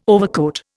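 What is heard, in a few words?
"overcoat", Indian English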